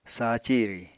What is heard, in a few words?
"sa ciri", Apatani; Material Culture